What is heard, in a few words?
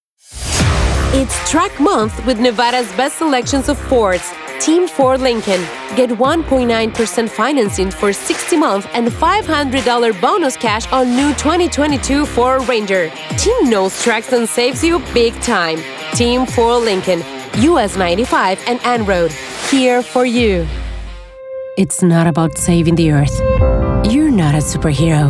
AUTOMOTIVE ENG